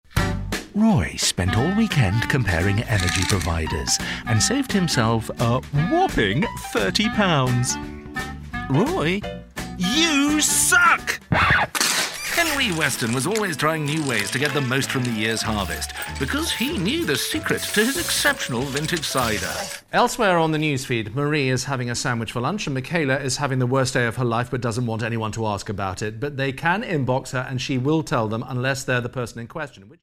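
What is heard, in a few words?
English, British, male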